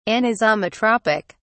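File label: "anisometropic", British English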